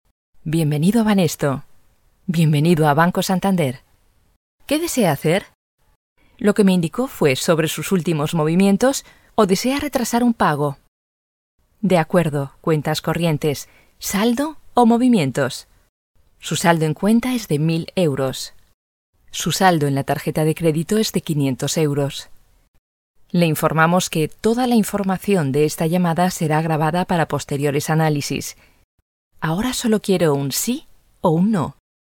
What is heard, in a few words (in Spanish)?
es phone; Banesto